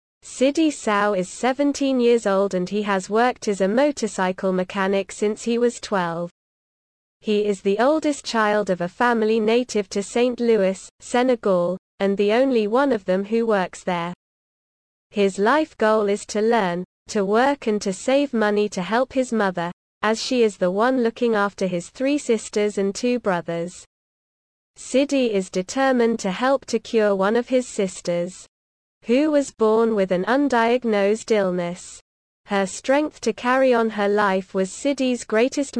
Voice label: audio english